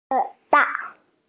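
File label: "tail", Mandarin, hearing-impaired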